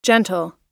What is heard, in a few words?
"gentle", US